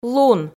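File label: "лун", Komi, female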